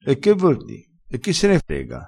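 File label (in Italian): "e che vor di", dialettale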